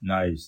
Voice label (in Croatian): romski; POP UP Zagreb